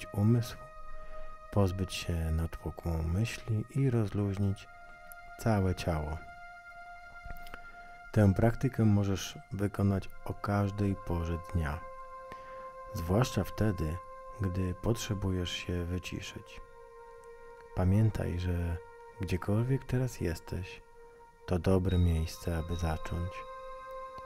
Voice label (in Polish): Medytacja relaksujaca